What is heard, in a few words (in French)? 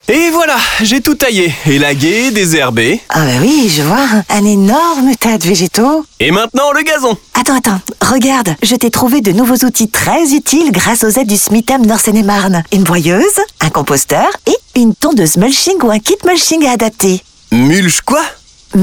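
Joue, PUB TON Joué, voix off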